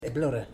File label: "Thank U", Ipili